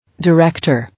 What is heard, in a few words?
"Director", English